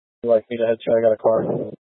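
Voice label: Incident Tactical 3, Scanner audio recording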